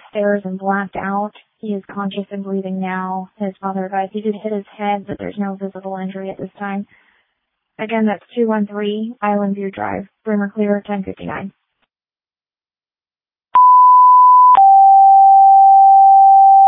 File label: Waverly Ambulance, dispatch